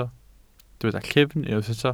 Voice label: "llyfn", Welsh